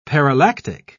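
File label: "parallactic", British English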